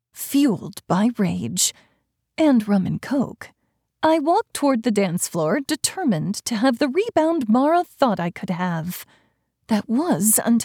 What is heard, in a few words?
RomCom, Female / Male, 1st person; Rebounding in Vegas